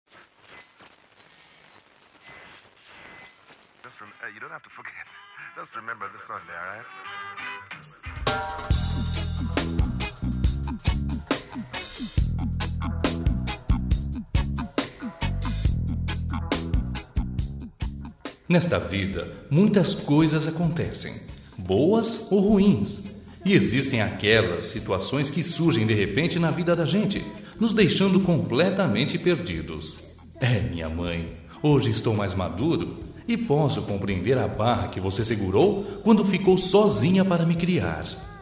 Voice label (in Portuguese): MAE COMO PAI, Voz Masculina, Telemensagem; Mãe Como Pai Com Carinho